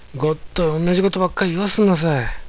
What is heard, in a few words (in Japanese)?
ごっとい同い事ばっかい言わすんなさぃ。, 有田弁; いつも同じ事ばっかり言わせるなよ。